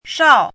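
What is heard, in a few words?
"shao4" Mandarin Chinese, falling tone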